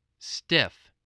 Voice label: "stiff", voice